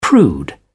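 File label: "prude", British English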